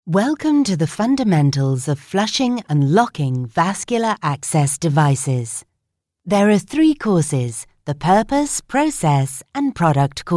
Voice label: 30s 40s British E-Learning